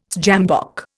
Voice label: "sjambok", Indian